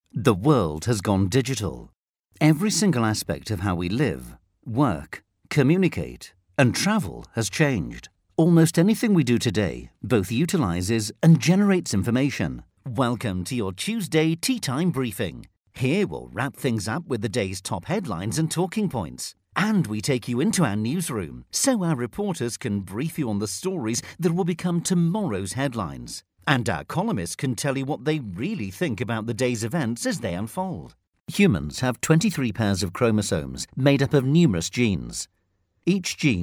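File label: English narration dry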